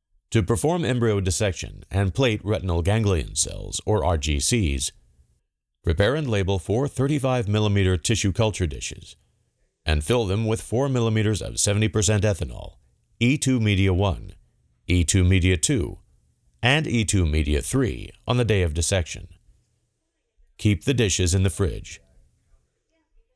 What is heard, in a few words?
Voiceover